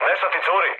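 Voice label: Serbian tank damaged mood med; War Thunder; speech srb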